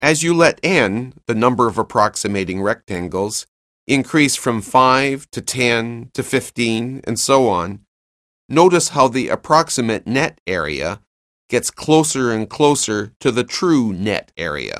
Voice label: narration; CALCULUS 7E; cengage